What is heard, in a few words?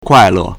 "kuai4le4", Chinese